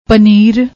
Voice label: "paneer", Punjabi